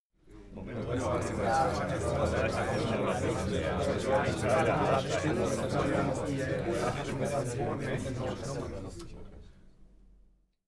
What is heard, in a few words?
talk german neutral short